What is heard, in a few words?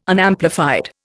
"Unamplified", Indian